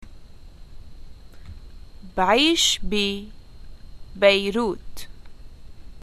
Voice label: introductions I live in, arabic levantine